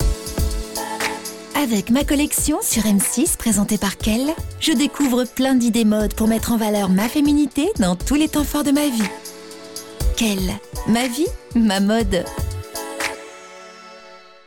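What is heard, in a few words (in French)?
Girly, comédienne voix off; Quelle; Billboard TV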